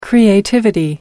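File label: "creativity", English, British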